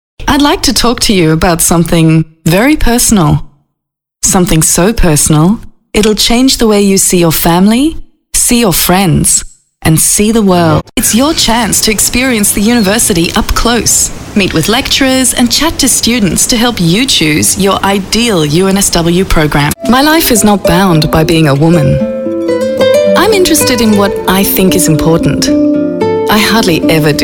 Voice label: Natural Speak